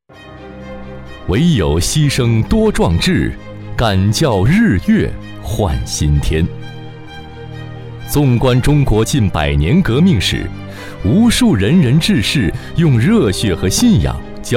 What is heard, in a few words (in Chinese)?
中文 专题; 黎明前的枪声